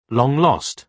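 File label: "long-lost", British English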